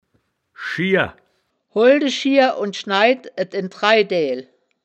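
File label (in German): "schiear", wittlicher woerterbuch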